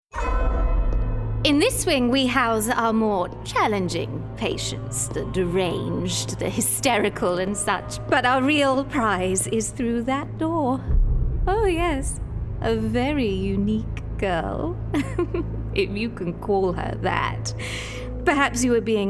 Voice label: Gaming Victorian Villain